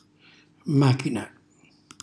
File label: "machina", Calabrese; automobile; cucina calabrese; Glossario